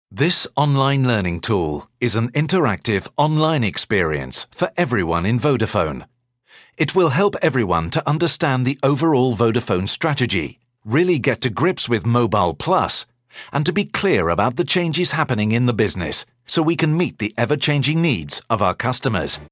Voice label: uk elearning

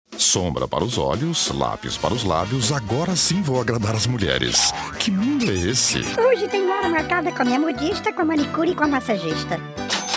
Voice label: br promo

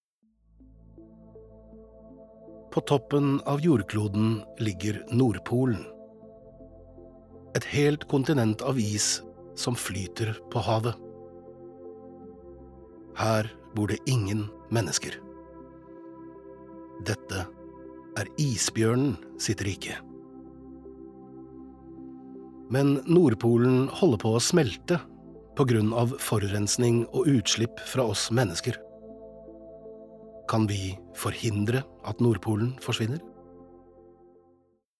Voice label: Norwegian dokumentar, male

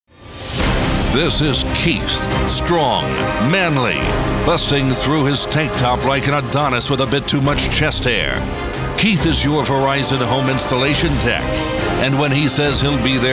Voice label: us commercial big

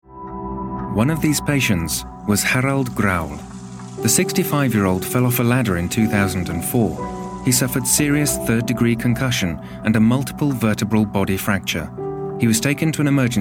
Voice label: uk documentary

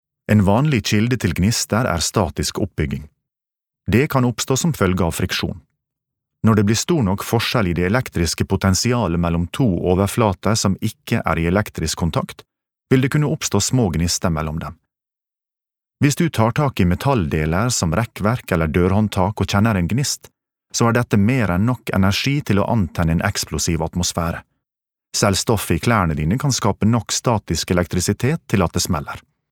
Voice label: no e-learning sunnmorsk

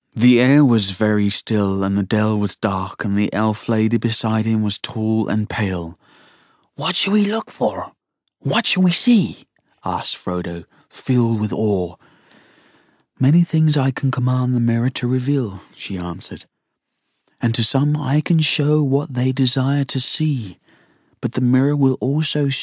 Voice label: uk audiobook

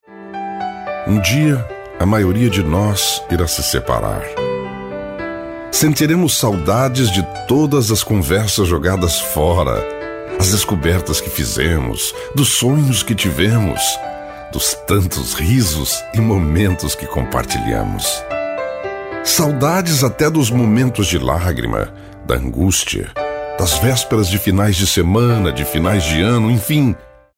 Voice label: br informative

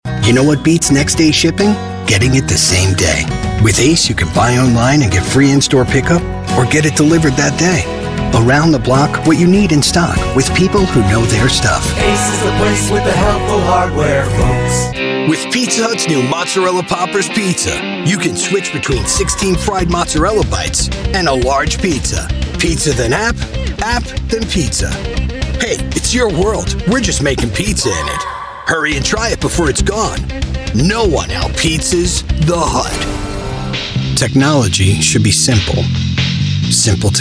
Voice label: us commercial

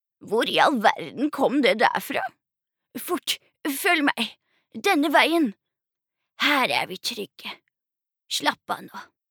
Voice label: no dub character

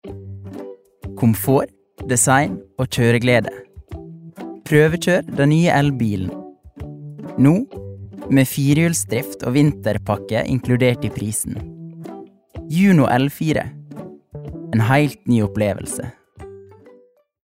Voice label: no commercial, norsk, mann

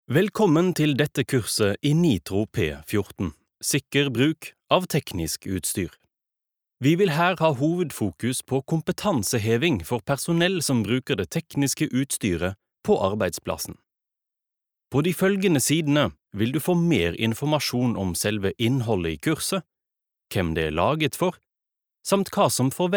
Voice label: no elearning

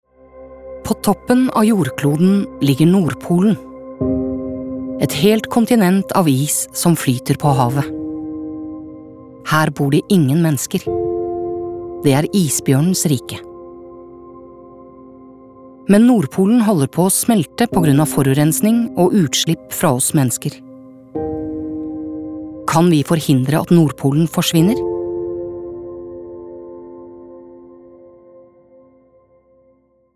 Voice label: no documentary

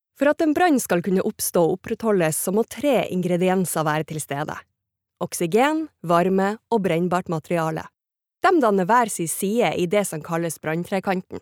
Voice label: Norwegian e-learning, norwegian female

